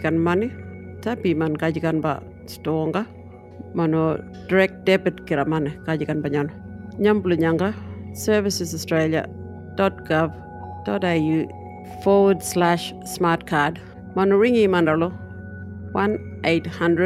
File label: enhanced income management, Warlpiri